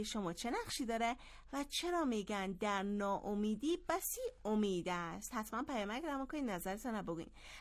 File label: Dari